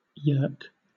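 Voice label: "yerk", British English; pronunciations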